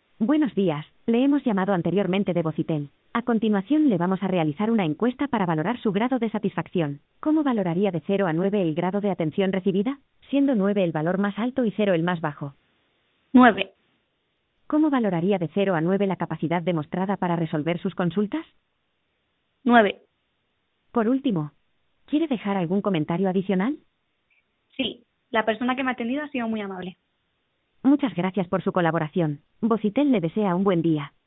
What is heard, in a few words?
telemarketing